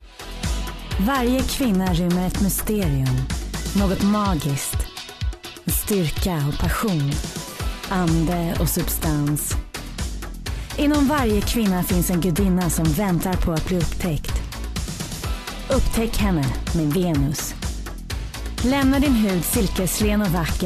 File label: Swedish, Scandinavian, Female, Home Studio, 20s-40s